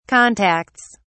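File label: "contacts", British English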